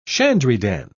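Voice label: "shandrydan", British English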